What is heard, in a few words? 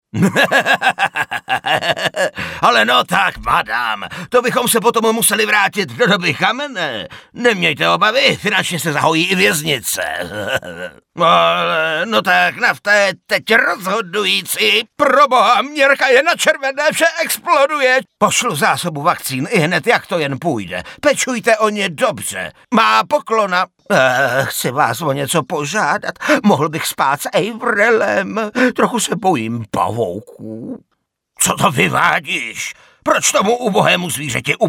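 Male Czech drama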